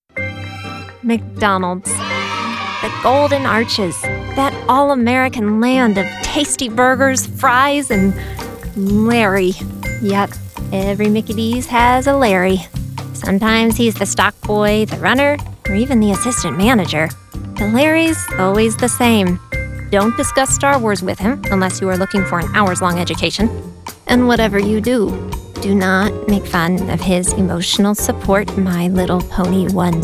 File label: e-learning